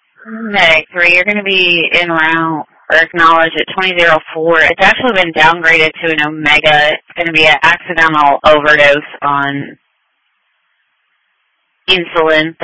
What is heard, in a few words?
EMS Dispatch